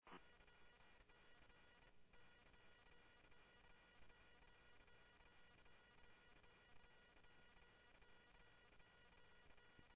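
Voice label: police department, scanner audio trunk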